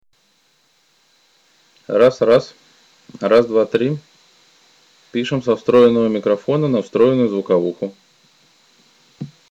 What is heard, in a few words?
test int mic; пишем со встроенного микрофона на встроенную звуковуху